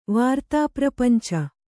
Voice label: "ವಾರ್ತಾಪ್ರಪಂಚ", kannada

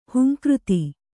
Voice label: "ಹುಂಕೃತಿ", Kannada

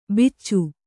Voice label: "ಬಿಚ್ಚು", Kannada

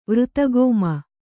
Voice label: "ವೃತಗೋಮ", Kannada

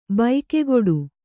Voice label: "ಬಗೆಗಿಡು", Kannada

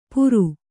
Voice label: "ಪುರು", Kannada

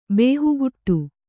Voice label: "ಬೇಹುಗುಟ್ಟು", Kannada

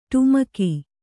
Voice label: "ಡಿಮಕಿ", Kannada

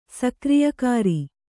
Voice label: "ಸಕ್ರಿಯಕಾರಿ", kannada

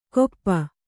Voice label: "ಕೊಪ್ಪ", kannada

